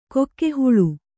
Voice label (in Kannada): "ಗೋಗಹಳೆ", Kannada